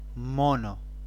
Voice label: Monkey spanish